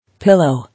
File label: "Pillow", English